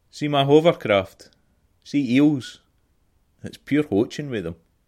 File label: hovercraft scots